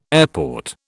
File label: "airport", British